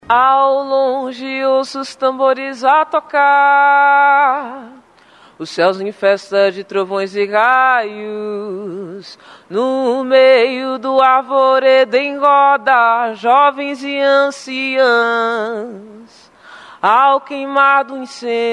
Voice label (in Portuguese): VOZ